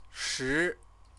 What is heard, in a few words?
"shi", Chinese